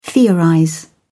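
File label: "theorize", British English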